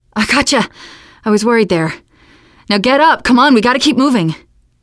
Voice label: revive friend, teen girl; player; survivor; voice